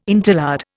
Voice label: "interlard", Indian